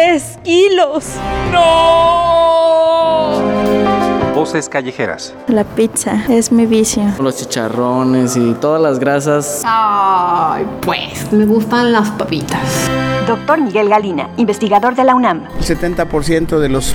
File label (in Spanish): spot chatarra